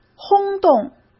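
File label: "轰动", Mandarin Chinese; hsk; chinese flashcards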